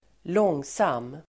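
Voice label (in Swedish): "långsam", Swedish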